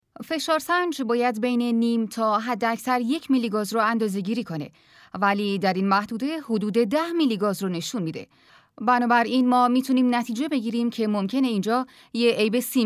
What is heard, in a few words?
documentary